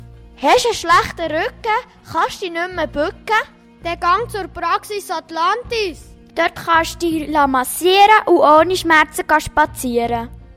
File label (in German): Praxis Atlantis Werbung